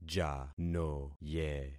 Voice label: "janoye", concat syllables; Affixing experiment

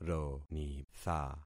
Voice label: "ronitha", concat syllables; Affixing experiment

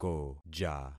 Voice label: "koja", concat syllables; Affixing experiment

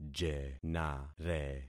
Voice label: "jenare", concat syllables; Affixing experiment

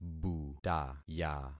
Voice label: "butaya", concat syllables; Affixing experiment

